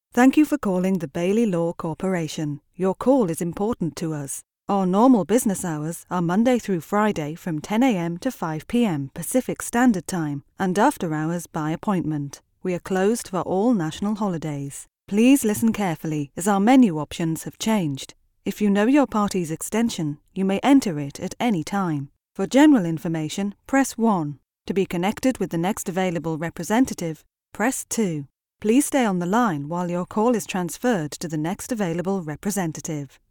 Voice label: British Female